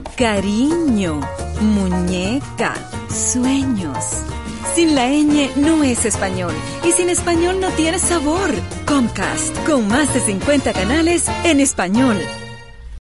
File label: female cuban neutral spanish latam commercial; devine voices